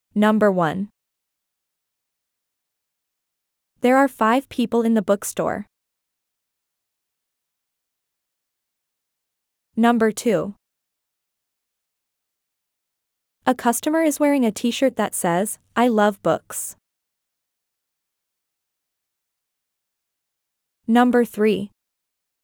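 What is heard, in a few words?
American; describing pics bookstore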